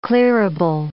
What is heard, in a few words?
"clearable", English